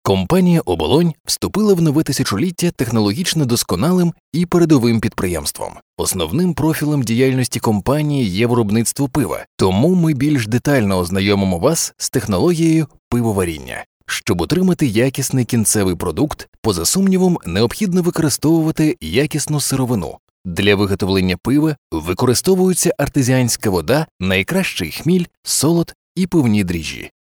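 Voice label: middle, Ukrainian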